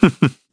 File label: Human Happy; heroes; Shakmeh; kings raid